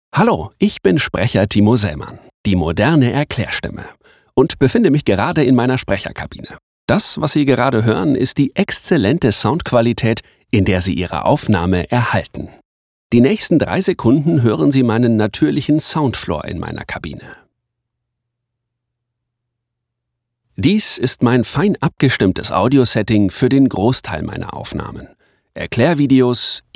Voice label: home studio test